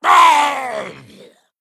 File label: Male Satyr Death